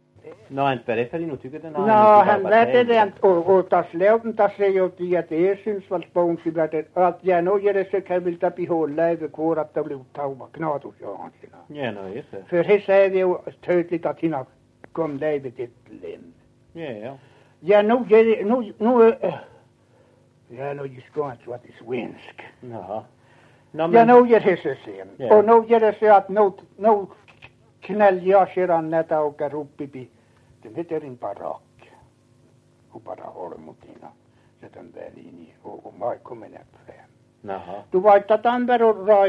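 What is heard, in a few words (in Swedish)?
Överkalix Norrbotten, dialekter, svenska sagor; Folkminnen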